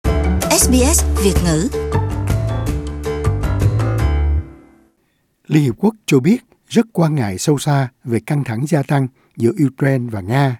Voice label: vietnamese; Căng thẳng gia tăng giữa Ukraine và Nga qua vụ đối đầu trên biển; SBS Vietnamese